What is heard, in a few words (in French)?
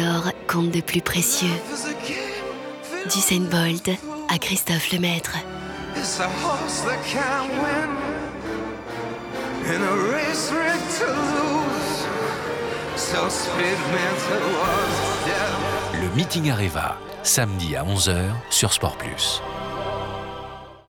Soirée en diamant haut de gamme délicate